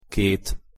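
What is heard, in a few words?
"ket", Hungarian pronunciation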